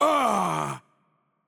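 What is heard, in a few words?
Greek hurt heavy voice; bloom minigame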